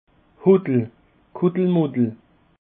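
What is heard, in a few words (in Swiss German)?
"huttel", Alsatian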